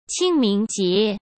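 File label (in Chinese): "清明节", Mandarin Chinese; hsk; chinese-flashcards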